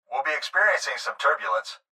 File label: Pilot Voice Turbulence